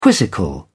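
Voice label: "quizzical", English, uk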